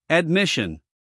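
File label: "admission", American English, male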